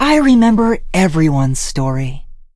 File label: touch, en; Cookie Run